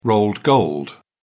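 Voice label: "rolled gold", English, British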